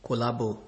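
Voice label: "kolabo", Nigerian Pidgin, male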